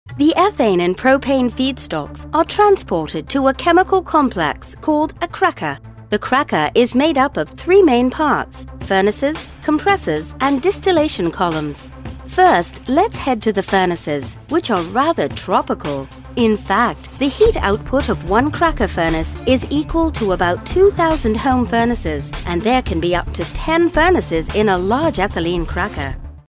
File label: British Industrial explainer, british accent